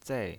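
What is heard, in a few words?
"zei" Mandarin Chinese pinyin voice, fourth tone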